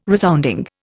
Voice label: "resounding", Indian